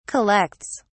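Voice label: "collects", British English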